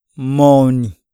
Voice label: muscle, iyasa